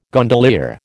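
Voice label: "gondolier", American English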